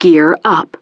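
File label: "Gear Up", English; DCS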